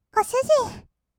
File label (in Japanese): ご主人, kawaii voice; ASMR; 二人称